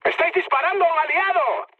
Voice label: player friendly fire, mood med, speech